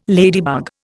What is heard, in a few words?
"ladybug", Indian